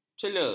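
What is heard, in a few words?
"cele", Malay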